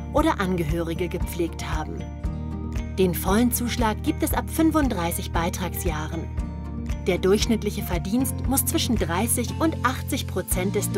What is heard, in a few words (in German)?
Erklärfilm Grundrente